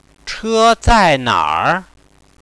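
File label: Where is the car, Chinese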